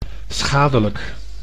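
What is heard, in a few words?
"schadelijk", Dutch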